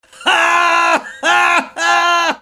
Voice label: laughing; alf; haha lachen; english